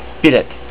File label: TICKET, estonian